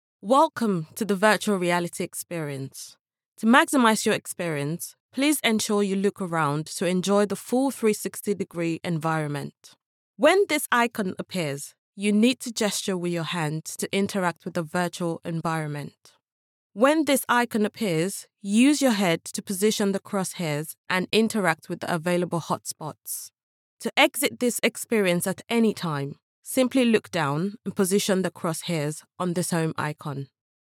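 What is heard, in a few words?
African English, female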